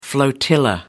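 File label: "flotilla", English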